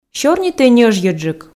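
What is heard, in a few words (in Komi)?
сёрнитӧй ньӧжйӧджык, Komi, female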